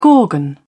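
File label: "gorgon", English, British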